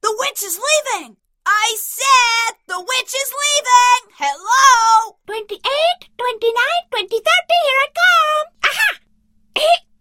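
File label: EN (UK) Characters, dona, Britànica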